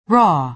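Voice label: "raw", American English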